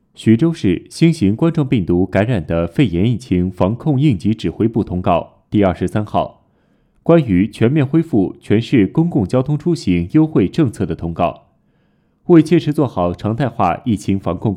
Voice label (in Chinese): 疫情防控公告, 男, 青年（18-35）, 普通话, 大气 官方 沉稳